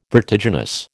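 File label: "vertiginous", American English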